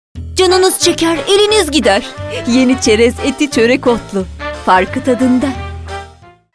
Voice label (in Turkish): neseli esprili